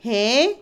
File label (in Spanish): Otomí, mujer; vestido; Ropa; INALI